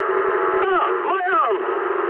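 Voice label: English damaged pilot mood low; speech; War Thunder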